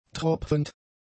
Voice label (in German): "tropfend", German; adjectives